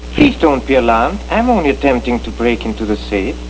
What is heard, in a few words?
alarmed; lorrelibrary